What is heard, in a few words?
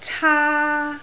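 "caa1", Cantonese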